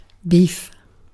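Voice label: "beef", British English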